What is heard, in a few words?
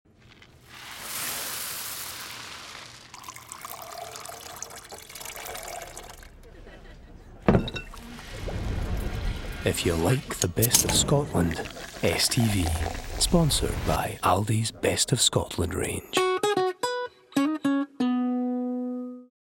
Ident; Aldi; STV